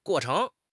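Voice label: "guo4 cheng2", Mandarin Chinese; HSK; HSK4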